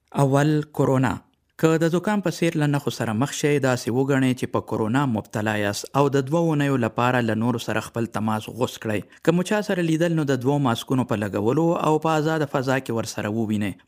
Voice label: Pashto Covid